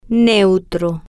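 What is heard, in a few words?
"neutro", Spanish, female, slow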